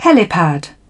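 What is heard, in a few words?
"heft", British English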